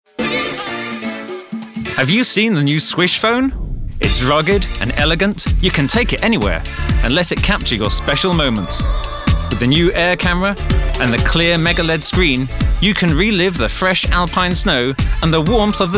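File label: British fresh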